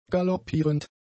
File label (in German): "galoppierend", German; adjectives